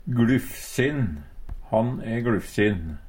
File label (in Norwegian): "gLufsin", Numedalsmål; Han e gLufsin.